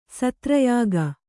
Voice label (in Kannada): "ಸತ್ರಯಾಗ", kannada